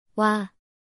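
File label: "ㅘ", Korean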